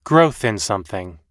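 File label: growth in something, US English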